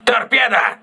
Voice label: voice message torpedo, crew ships russian